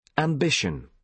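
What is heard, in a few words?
"ambition", British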